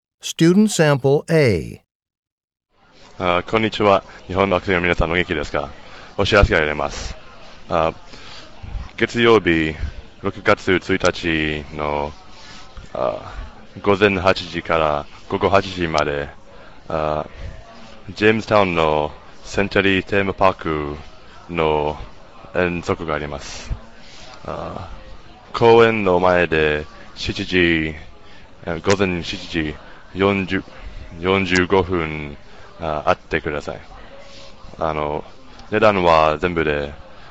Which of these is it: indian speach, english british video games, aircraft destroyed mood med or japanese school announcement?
japanese school announcement